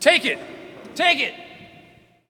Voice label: Hockey Male "Take It"; Hockey Vocal Sounds